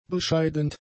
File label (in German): "bescheidend", German; adjectives